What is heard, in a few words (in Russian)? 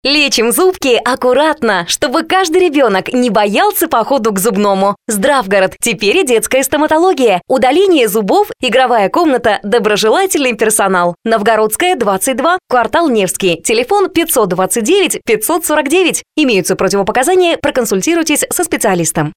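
голоса, Russian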